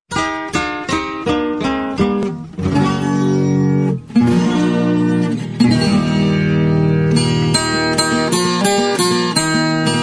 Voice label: poema caipira

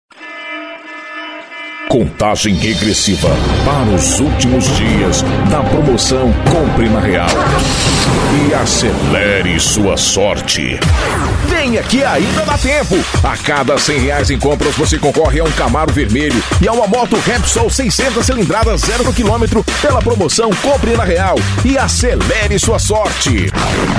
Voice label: locutor chamadas offs spot propaganda comerciais

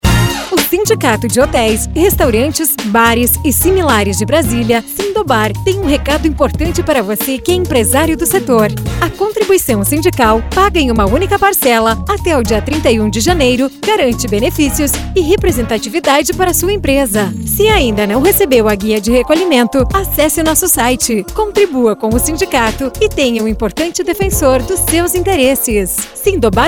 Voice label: voz locutora spot; sindhobar

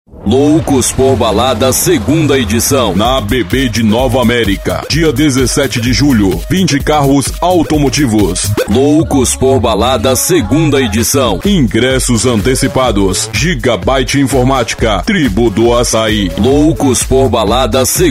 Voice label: locutor; MS ESTUDIO

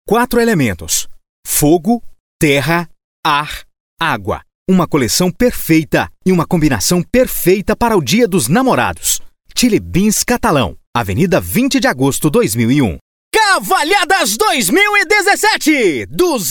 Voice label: locutor

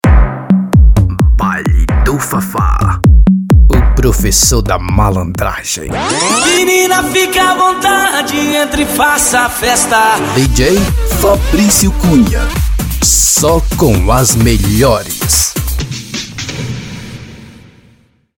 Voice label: vinheta voz locutor

